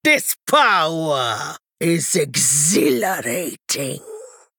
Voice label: Female Troll Play